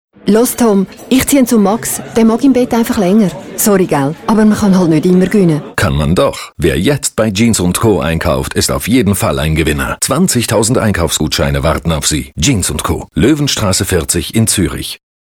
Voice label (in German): radiospot; jeansundco